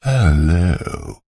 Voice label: human male middle aged says hello flirtatious suave and sophisticated